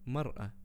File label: woman, arabic words audio